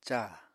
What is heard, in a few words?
"càa", Apatani